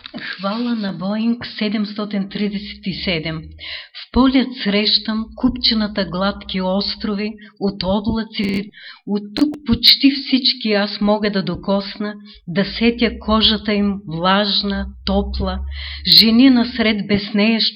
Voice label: bulgaro